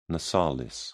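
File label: "nasalis", British English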